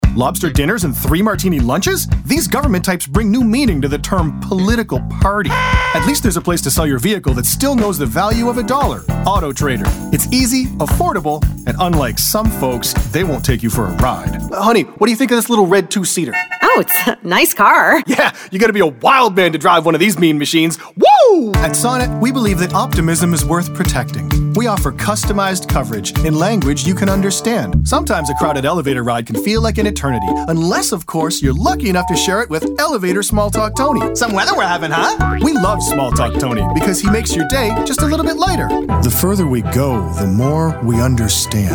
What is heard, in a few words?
Commercial; TaDa